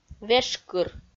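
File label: "вешкыр", Komi, female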